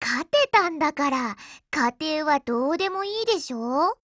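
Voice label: WIN, Japanese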